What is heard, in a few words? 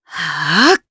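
Vox Casting Japanese; Hilda; kingsraid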